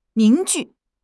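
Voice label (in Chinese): "凝聚", Chinese; HSK6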